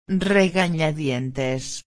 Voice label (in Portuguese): "reganadientes", espanhol